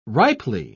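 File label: "ripely", British English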